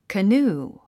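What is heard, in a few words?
"canoe", English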